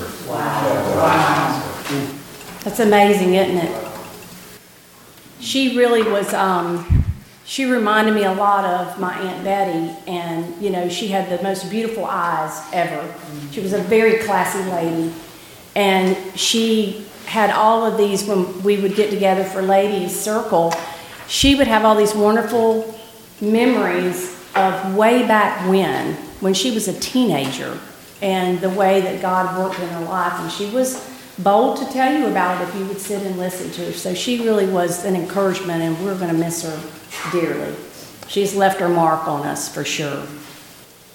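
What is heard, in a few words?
Announcements, church service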